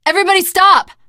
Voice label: wait here, teen girl voice; source engine; left 4 dead 2; survivor